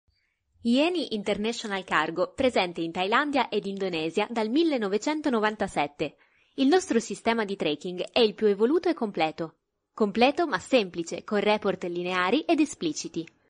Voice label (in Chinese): 意大利语女 外语 小语种